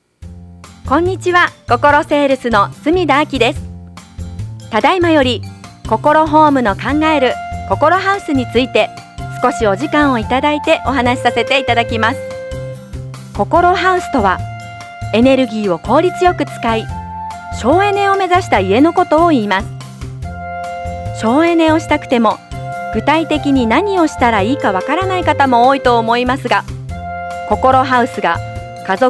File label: voice naration